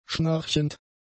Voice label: "schnarchend", German; adjectives